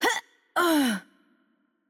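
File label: Turkish hurt heavy voice; bloom minigame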